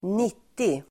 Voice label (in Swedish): "nittio", Swedish